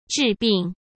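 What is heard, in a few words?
"治病", Mandarin Chinese; chinese flashcards; hsk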